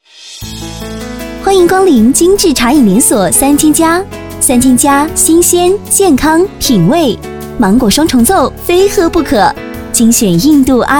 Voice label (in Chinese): 广告, 甜美, 时尚; 三千家